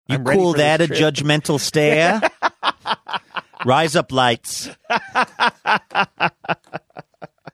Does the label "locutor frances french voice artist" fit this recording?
No